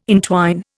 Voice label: "entwine", Indian